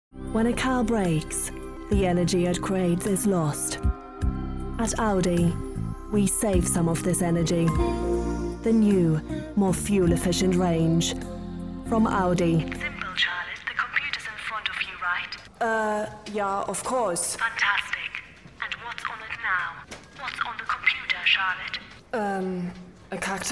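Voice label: German, English accent; GoLocalise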